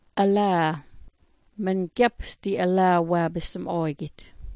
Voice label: "alah", smalgyax